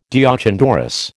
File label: "deoch an doris", American